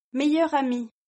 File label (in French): "meilleur ami", French